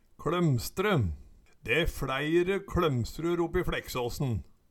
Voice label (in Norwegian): kLomstre, Numedalsmål